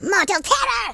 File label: run away, speech, Horror